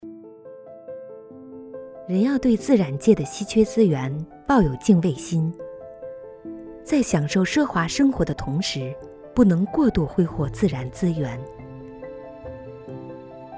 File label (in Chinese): 奢居宣传概念片